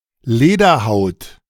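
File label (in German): "Lederhaut", German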